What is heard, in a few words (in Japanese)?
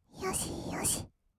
"よしよし", kawaii voice; 1200 kawaii voice!!; 色々なワンショット